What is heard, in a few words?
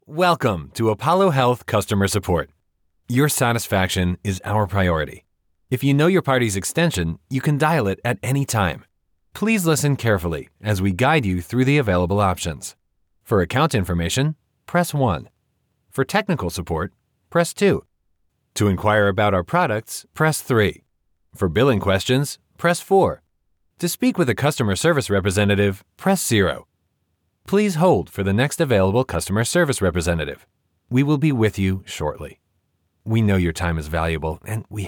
IVR